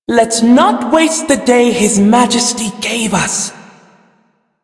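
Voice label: Male Brisk en, ambient dialog; Warframe; Duviri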